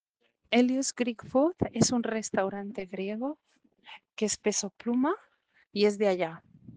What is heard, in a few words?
Voice message